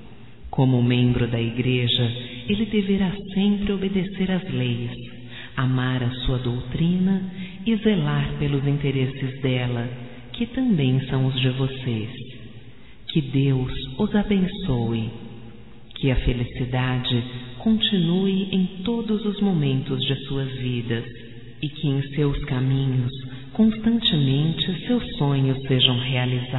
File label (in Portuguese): voz Mens. p. Pais do Filho Batizado, fem; Telemensagens 2017